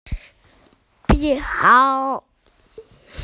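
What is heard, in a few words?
"fur coat", Mandarin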